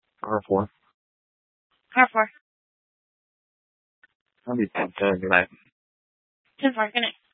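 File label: Tempe police department, scanner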